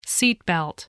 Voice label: "seatbelt", voice spelling